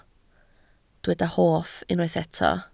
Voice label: "hoff", Welsh